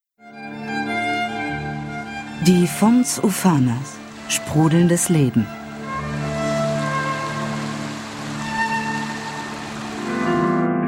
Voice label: documentary, German, female